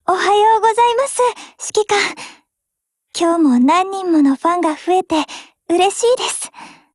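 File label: HELLO Japanese, Voice; GF Alarm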